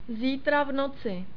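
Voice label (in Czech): "zítra ráno", czech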